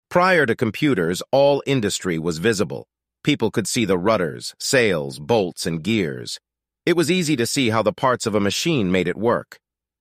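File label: Voiceover Generative AI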